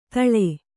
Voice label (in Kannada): "ಧೂಳಿ", Kannada